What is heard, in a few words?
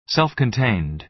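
"self-contained", American English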